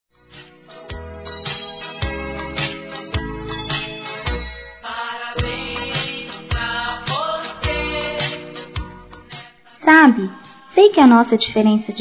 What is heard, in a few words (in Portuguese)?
Aniv. Irmã Mais Velha Feminino, Telemensagens; Aniversário Infantil